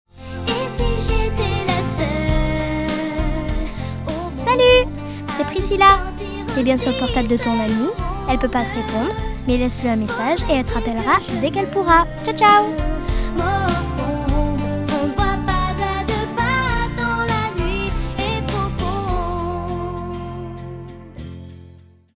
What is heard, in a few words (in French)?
Messages pour repondeur telephonique